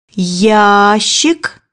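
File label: "box", Russian; bukvar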